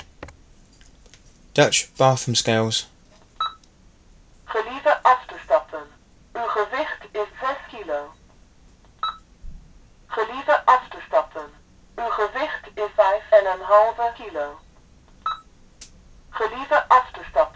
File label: Dutch Bathroom Scale